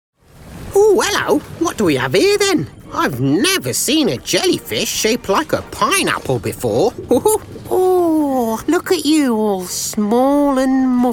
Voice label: Animation

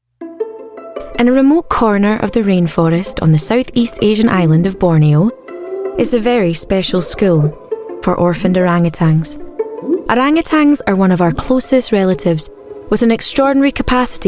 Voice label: Narration; 20s-30s. Female. Scottish.

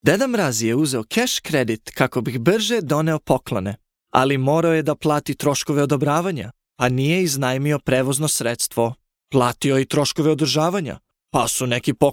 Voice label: Serbian

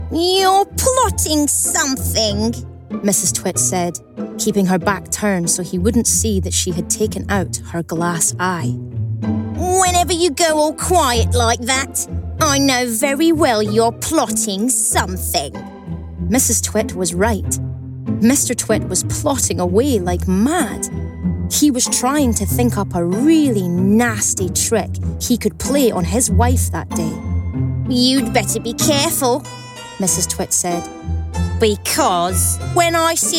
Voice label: Audiobook; home studios

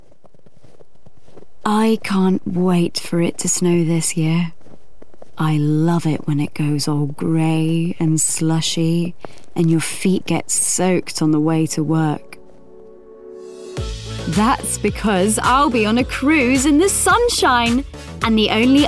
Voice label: RP

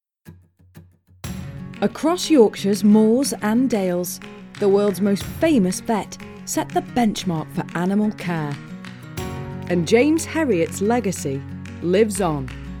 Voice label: Narration

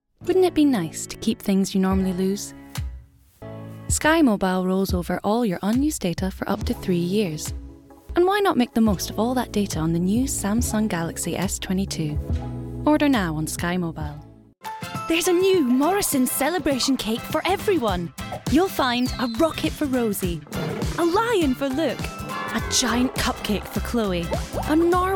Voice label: commercial

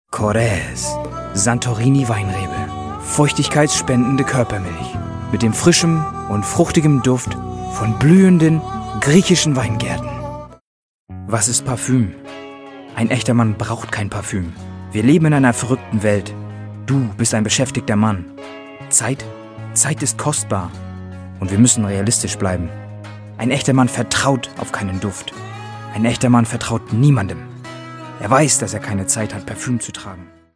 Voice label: German

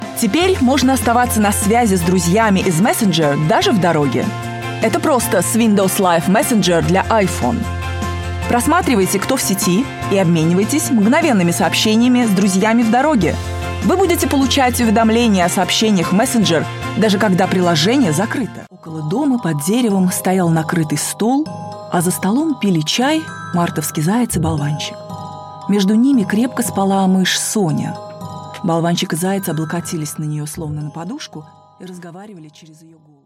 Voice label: Russian

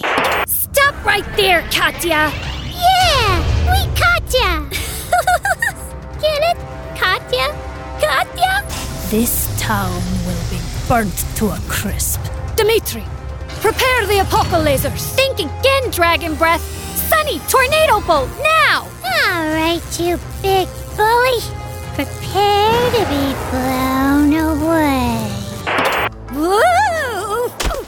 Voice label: Animation

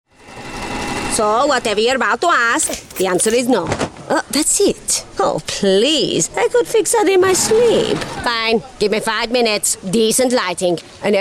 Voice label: Animation

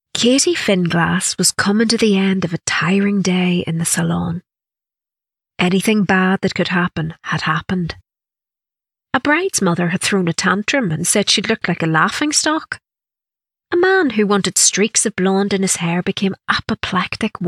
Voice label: Audiobook

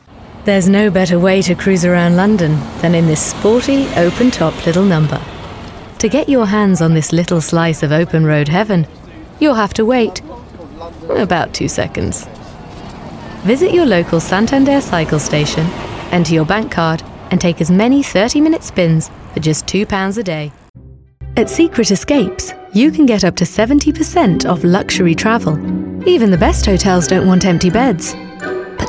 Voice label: Commercial

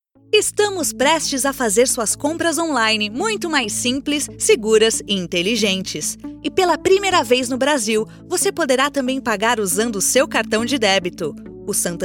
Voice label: Brazilian Portuguese; Portuguese-Brazilian, Male, Home Studio, 30s-50s

